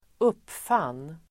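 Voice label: "uppfann", Swedish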